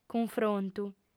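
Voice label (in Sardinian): "cunfrontu", female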